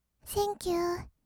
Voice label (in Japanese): "センキュー", kawaii voice; ASMR; 色々なワンショット; 1200 kawaii voice!!